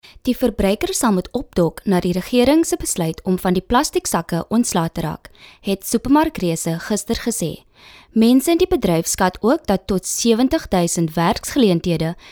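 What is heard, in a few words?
afrikaans soft sell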